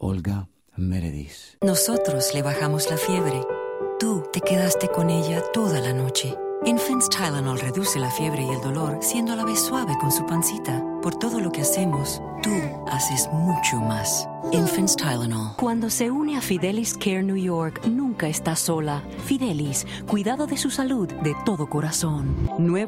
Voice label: Spanish

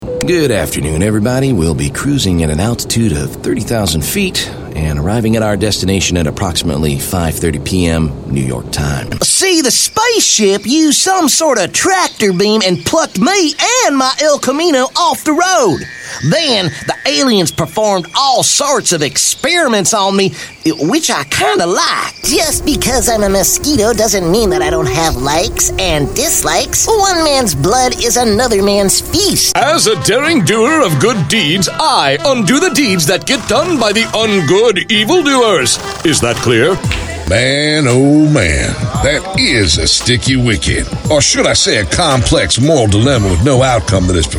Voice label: Animation

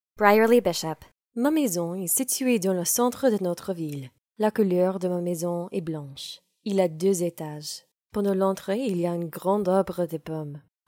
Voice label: Female French

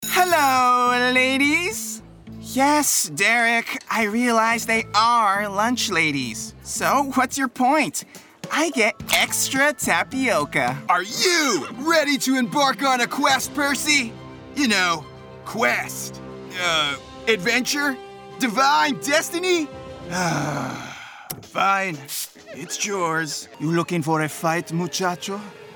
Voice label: Animation

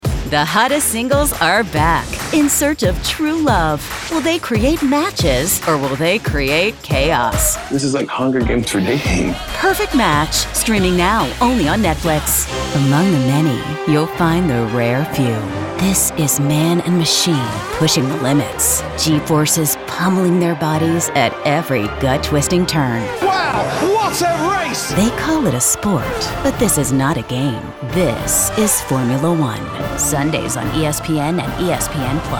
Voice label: Promo

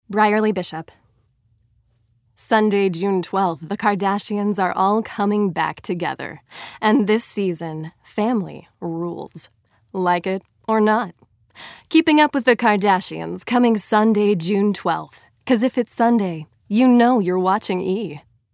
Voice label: Female Promo

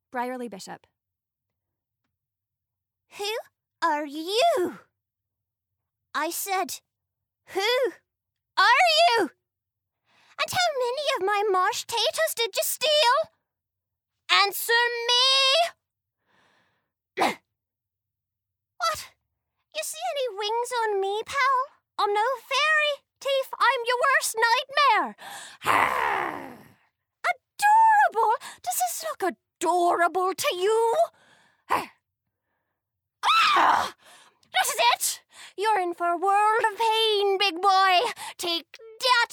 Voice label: Female Animation

